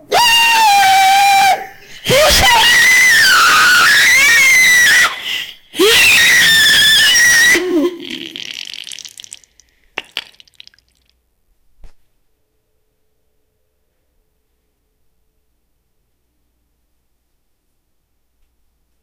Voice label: an old furious lady shout